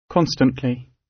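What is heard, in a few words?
"constantly" British English; The arts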